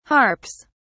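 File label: "harps", British English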